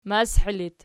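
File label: "mashi" locative, Archi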